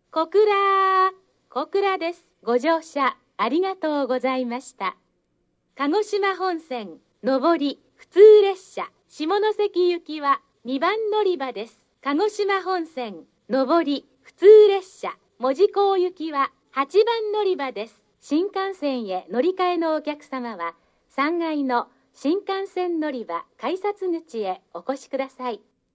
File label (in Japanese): 到着＋乗換放送; 小倉駅